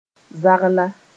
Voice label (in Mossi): "zagla", moore